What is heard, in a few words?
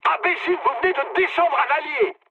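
player killed ally, mood medium, French; War Thunder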